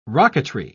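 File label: "rocketry", British English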